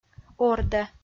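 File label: "ордӧ", Komi-Zyrian, female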